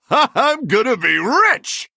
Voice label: Male Human Greetings; en